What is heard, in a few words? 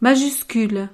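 "majuscule", French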